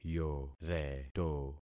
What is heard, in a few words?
"yoreto", concat syllables; Affixing experiment